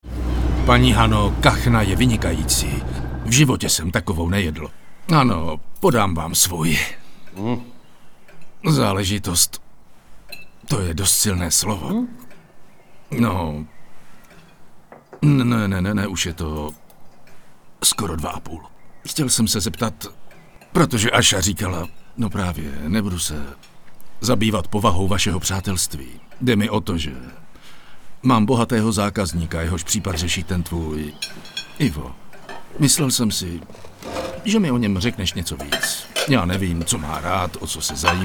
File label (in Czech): ukazka dabingu